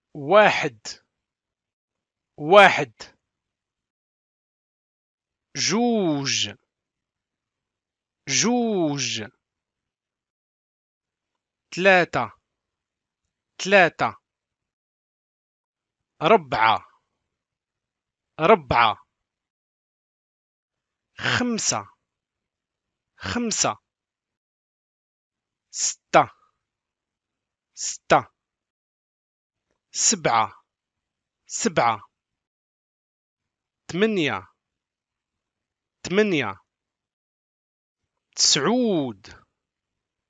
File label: how to say numbers 1 to 10 in moroccan arabic audio file pronunciation